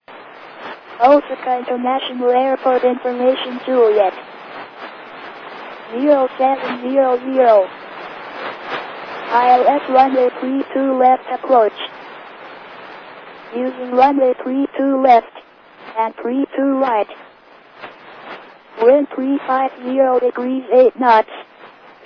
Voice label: ATIS, Japan; osaka; Itami